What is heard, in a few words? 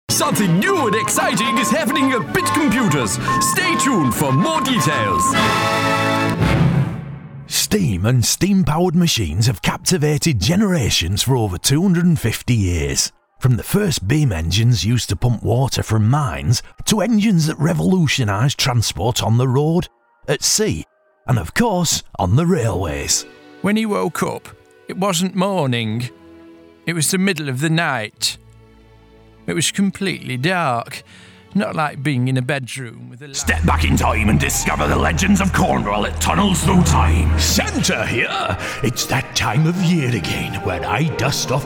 Character Showreel